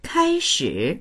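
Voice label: "kai1shi3", Mandarin Chinese; 开始; 開始する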